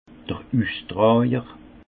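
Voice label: "ustrajer", Haut Rhin; vin; porteur de raisin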